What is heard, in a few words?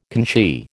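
"conchie", American English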